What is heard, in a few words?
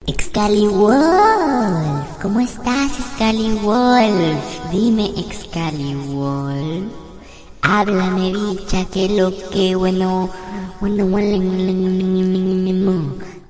como estas, Robotic or Stuttery or Spooky